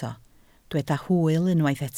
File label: "hwyl", Welsh